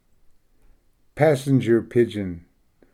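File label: "passenger pigeon", English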